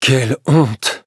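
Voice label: defeat, French; Cookie Run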